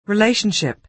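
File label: "relationship", American English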